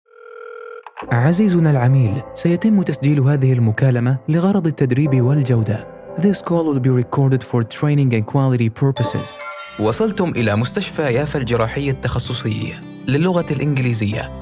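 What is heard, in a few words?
Arabic, male, IVR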